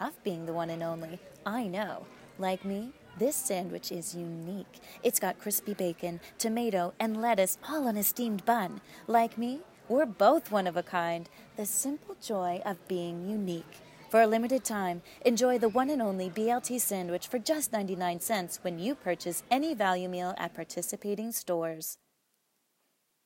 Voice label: Restaurant Commercial BLT